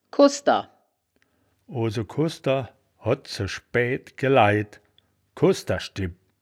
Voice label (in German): "kossder", wittlich